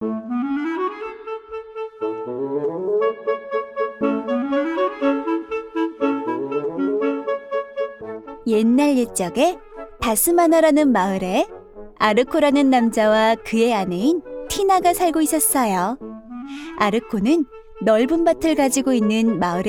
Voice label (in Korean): 동화 내레이션